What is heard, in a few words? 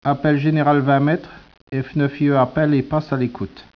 speech, French